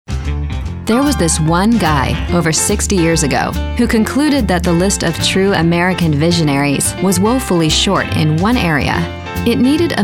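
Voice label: narration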